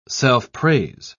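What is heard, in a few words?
"self-praise", British English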